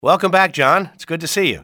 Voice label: hey john, english male; XBOX; civ